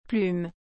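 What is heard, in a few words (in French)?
"plume", French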